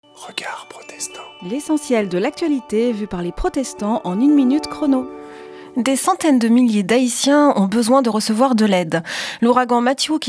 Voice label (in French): Spot radio; Regards protestants